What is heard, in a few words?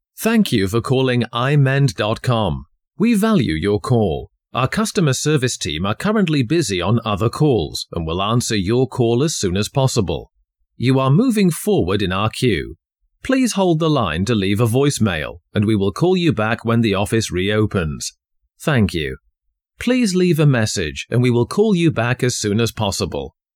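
British English, male, Telephony IVR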